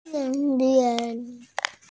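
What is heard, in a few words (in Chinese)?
"sen1lin2", Mandarin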